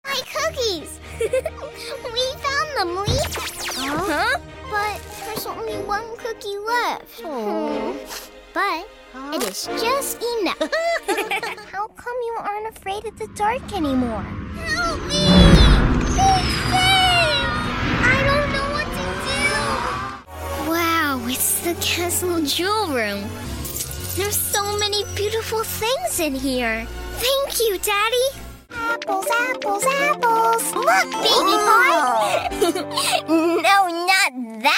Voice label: Animation